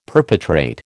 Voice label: "perpetrate", American